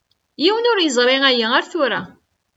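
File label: "Yiwen ur yeẓri aya ar tura.", Kabyle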